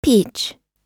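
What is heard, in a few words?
"peach", US English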